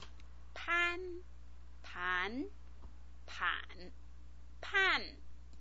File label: "pan", Chinese pinyin